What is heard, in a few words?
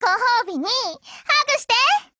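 Japanese, Voice; GF Alarm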